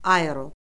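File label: "aero", Azerbaijani; Orfoqrafiya Lüğəti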